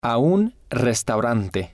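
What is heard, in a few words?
"a un restaurante", spanish, male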